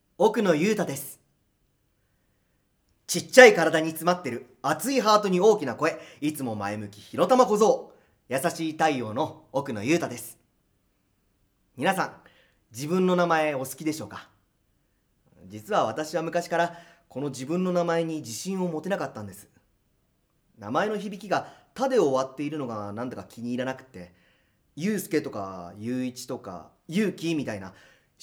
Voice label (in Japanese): フリートーク